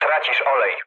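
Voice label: damaged oil, mood low, Polish speech; War Thunder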